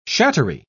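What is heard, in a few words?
"shattery", British English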